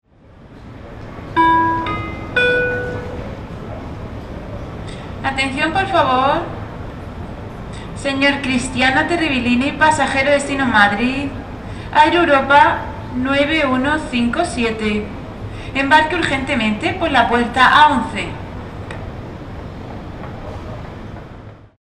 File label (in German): attention por favour, Flughafen Ansagen